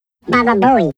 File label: bababooey pitched up